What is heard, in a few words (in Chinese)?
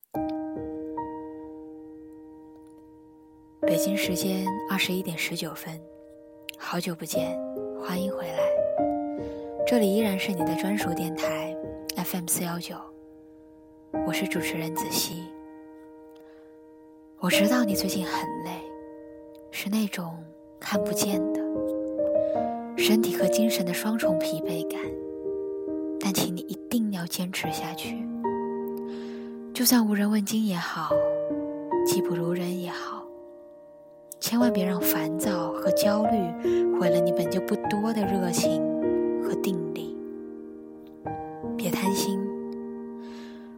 温暖电台